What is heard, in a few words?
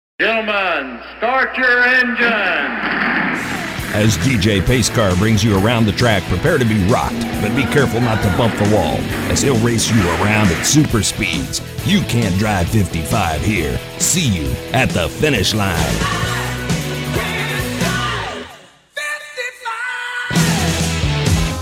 imaging